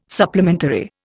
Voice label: "supplementary", Indian

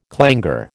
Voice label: "clangour", American

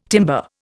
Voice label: "timber", Indian

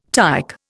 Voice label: "tike", Indian

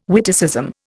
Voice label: "witticism", Indian

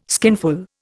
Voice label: "skinful", Indian

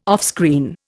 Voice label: "offscreen", Indian

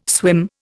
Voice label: "swim", Indian

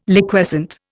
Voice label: "Liquescent", Indian

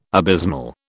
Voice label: "abysmal", British

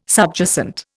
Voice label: "subjacent", Indian

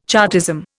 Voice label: "Chartism", Indian

